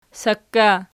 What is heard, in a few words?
"sakka", Archi